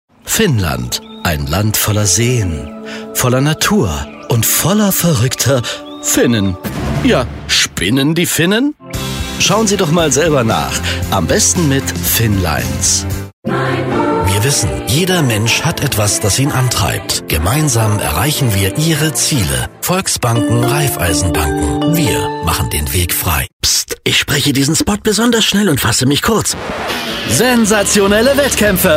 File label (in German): werbestimme